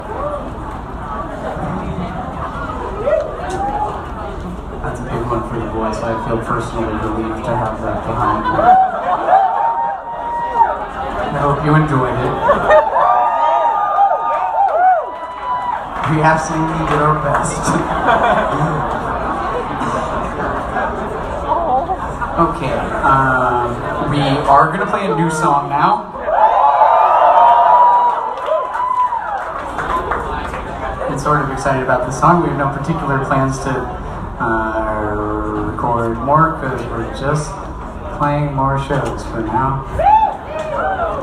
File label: Banter